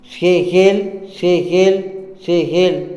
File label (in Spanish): conducir, Tojolabal